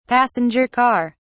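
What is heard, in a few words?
"passenger car", British English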